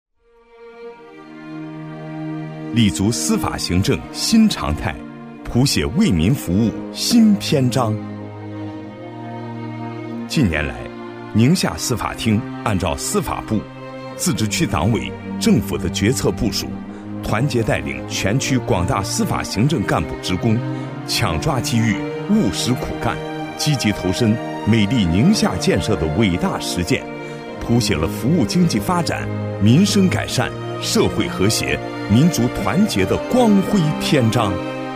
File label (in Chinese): 男, 党政专题; 司法